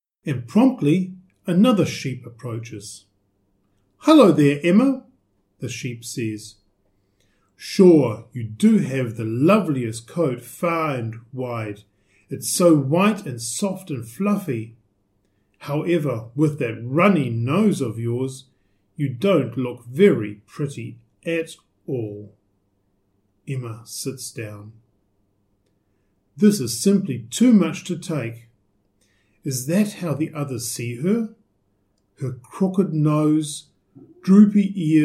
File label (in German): englisch